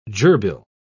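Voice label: "gerbille", British English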